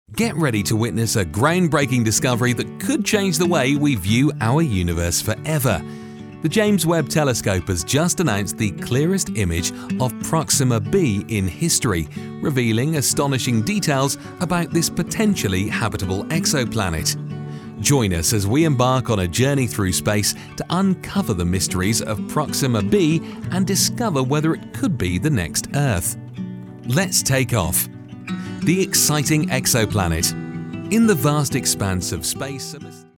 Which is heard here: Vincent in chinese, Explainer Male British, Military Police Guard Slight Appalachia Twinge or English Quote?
Explainer Male British